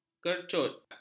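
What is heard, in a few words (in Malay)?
"kercut", Malay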